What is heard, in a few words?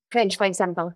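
Voice Acting es, female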